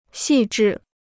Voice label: "细致", Chinese